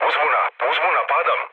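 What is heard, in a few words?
Serbian aircraft destroyed mood low, speech; War Thunder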